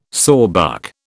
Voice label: "sawbuck", British

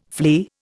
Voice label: "flee", Indian

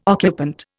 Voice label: "occupant", Indian

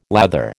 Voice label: "lather", American

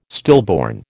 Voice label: "stillborn", American

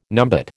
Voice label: "numbat", American

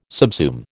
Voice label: "subsume", American

